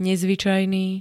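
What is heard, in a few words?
"nezvyčajný", Slovak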